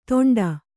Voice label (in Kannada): "ತೊಂಡ", Kannada